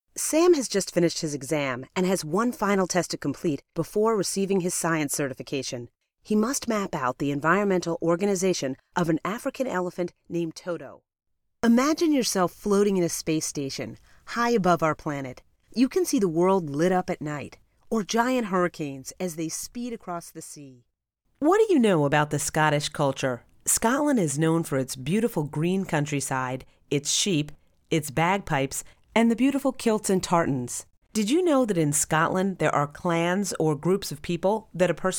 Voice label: elearning